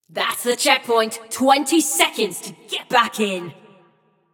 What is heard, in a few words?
Checkpoint Opened, Announcer; Flux; Scraprun